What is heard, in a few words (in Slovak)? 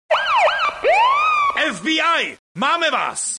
sms hlásky cz